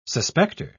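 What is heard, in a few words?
"suspecter", British English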